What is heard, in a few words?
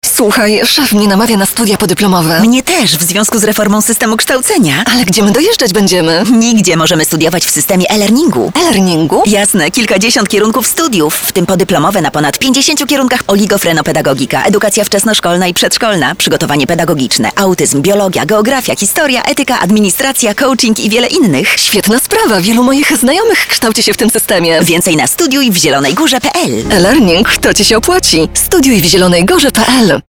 Spot; Studia e-learning